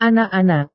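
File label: "anak-anak", Indonesian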